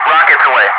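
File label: "rockets away", English speech, Wingman; DCS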